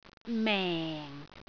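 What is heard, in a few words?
"meng4", Cantonese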